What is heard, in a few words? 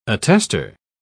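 "attestor", English, British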